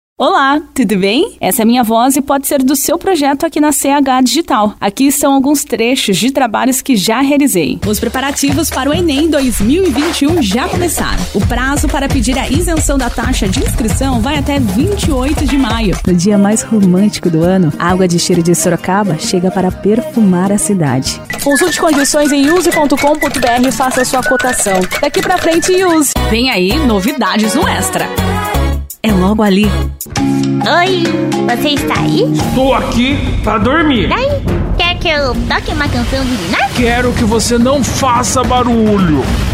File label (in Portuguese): Voz Feminina